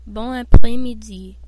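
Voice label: good afternoon, French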